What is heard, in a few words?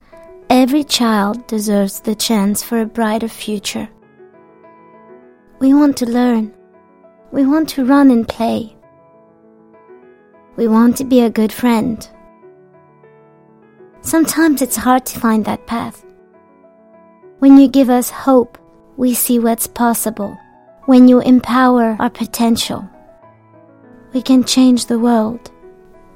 child